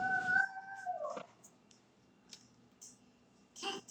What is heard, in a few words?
kid saying ohh